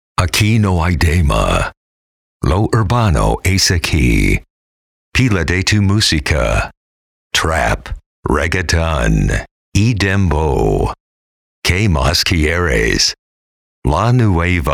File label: Radio Imaging Spanish